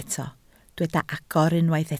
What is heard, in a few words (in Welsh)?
"agor", Welsh